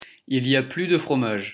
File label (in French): "il y a plus de fromage" prononciation